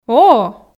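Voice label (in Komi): "о-о", Komi, female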